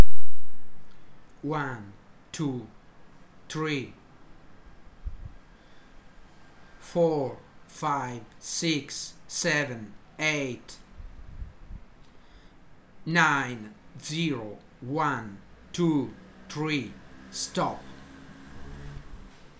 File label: sentences With Silences